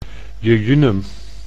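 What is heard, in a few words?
"jejunum", Dutch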